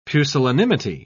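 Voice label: "pusillanimity", British English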